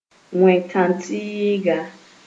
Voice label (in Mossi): "wẽ kãntɩɩga", moore